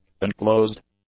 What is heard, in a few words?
"unclosed", English; adjectives